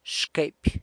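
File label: "navel", Zapotec; xquepy; San Lucas Quiaviní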